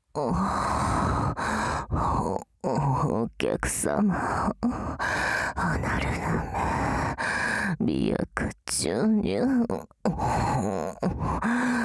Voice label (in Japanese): アナル舐め媚薬注入手コキ罵倒オプション 語り掛け, ASMR; 右